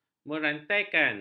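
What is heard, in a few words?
"merantaikan", Malay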